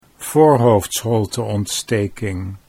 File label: "voorhoofdsholteontsteking", Dutch